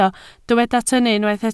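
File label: "tynnu" Welsh, spoken word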